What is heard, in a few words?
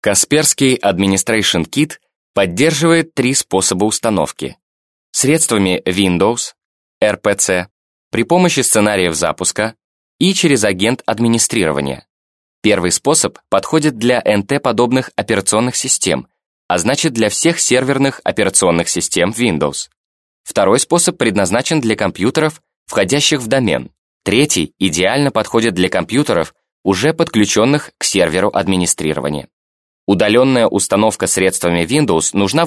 russian male